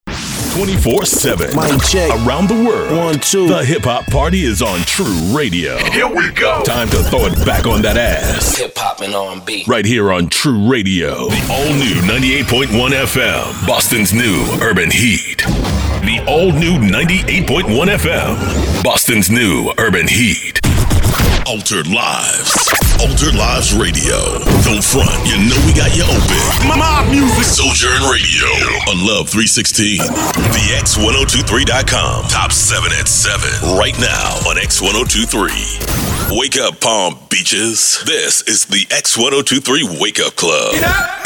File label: IMAGING